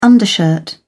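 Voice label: "undershirt", English, British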